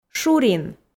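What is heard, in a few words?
"шурин", Komi, female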